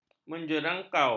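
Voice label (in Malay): "menjerungkau", Malay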